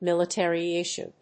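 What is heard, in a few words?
"military issue", English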